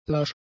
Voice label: "lasch", German; adjectives

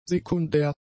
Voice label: "sekundär", German; adjectives

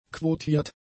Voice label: "quotiert", German; adjectives

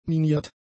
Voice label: "miniert", German; adjectives

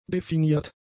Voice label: "definiert", german; adjectives

